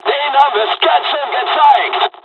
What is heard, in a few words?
dialog, dialogs german; War Thunder; midway